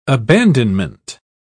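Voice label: "abandonment", British English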